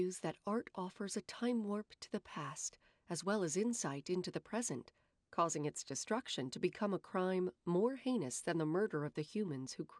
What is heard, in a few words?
woman speaking